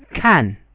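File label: "kan", Chinese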